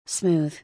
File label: Smooth